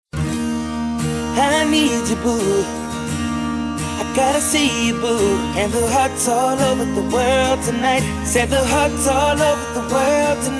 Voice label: Aniversário Amigo (Nós Desejamos) Masculino

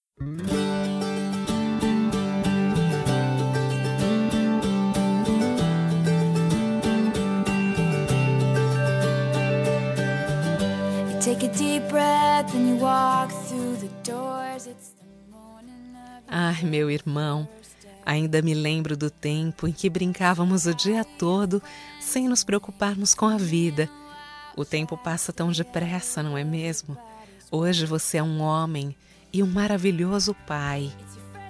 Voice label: DIA DOS PAIS IRMAO, fem; Telemensagens 2017

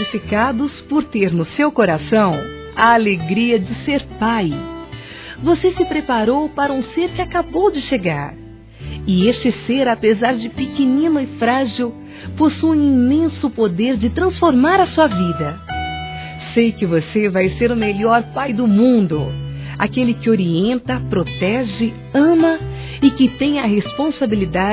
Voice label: Parabéns Papai Feminino; Telemensagens; Felicitações; Telemensagens 2017

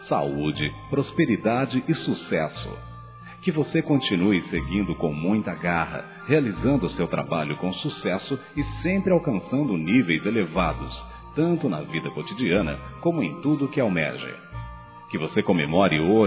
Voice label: ANIV. CLIENTE M, Telemensagens; Empresarial; masc; Telemensagens 2017

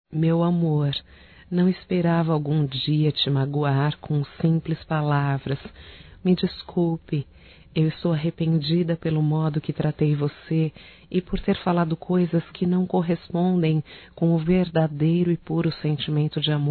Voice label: DESCULPA ROMÂNTICA (F); Românticas

